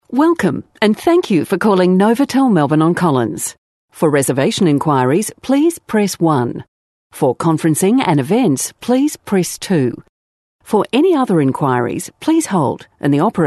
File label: IVR